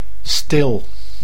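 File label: "stil", Dutch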